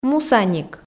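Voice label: "мусаник", Komi, female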